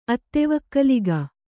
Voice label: "ಅತ್ತೆವಕ್ಕಲಿಗ", Kannada

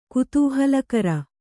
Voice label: "ಕುತೂಹಲಕಾರಿ", Kannada

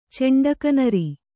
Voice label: "ಚೆಂಡಕನರಿ", Kannada

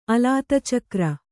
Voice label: "ಅಲಾತಚಕ್ರ", Kannada

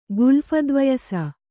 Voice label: "ಗುಲ್ಫದ್ವಯಸ", kannada

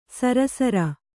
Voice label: "ಶರಾಸಾರ", Kannada

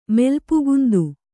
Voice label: "ಮೆಲ್ಪುಗುಂದು", Kannada

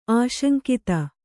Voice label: "ಆಶಂಕಿತ", kannada

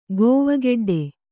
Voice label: "ಕವೆಕಡ್ಡಿ", Kannada

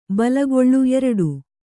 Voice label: "ಬೇಲಿಕಳ್ಳಿ", Kannada

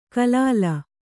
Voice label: "ಖಲಲು", Kannada

